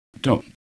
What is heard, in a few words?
gravesend lot, kent accent